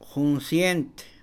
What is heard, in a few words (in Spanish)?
cien, Mam; INALI